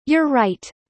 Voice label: fast